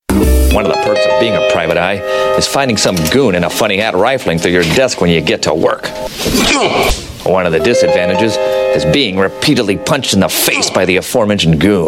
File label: commercial